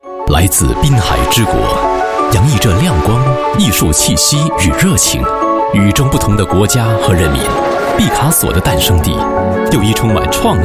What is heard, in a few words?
Mandarin Radio Spot; Seat